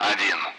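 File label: Speech Sound Russian Common Allied Flight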